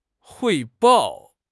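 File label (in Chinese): "汇报", Chinese; HSK6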